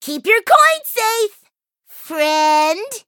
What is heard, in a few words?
eng menu idle; Chili Pepper